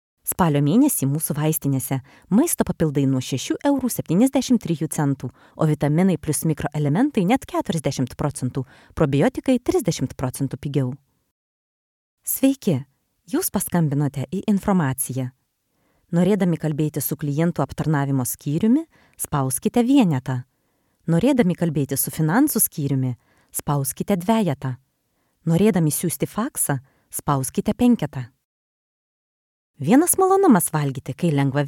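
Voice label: Lithuanian, female, IVR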